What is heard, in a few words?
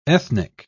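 "ethnic", English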